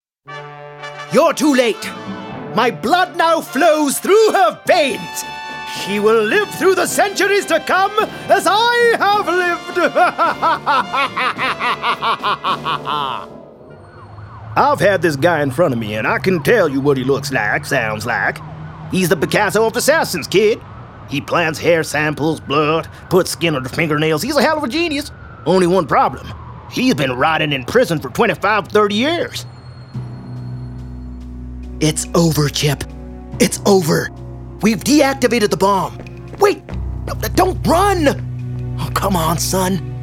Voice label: CHARACTER